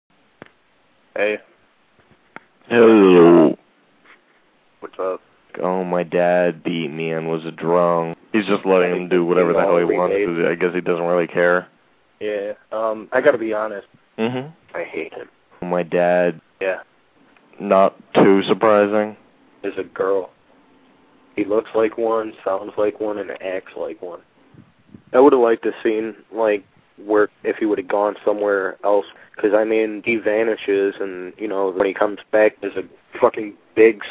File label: phonecall